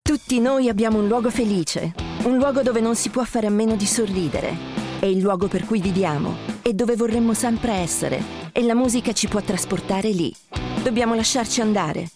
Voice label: Italian